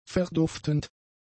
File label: "verduftend", German; adjectives